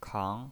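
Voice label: "kang", second tone, pinyin voice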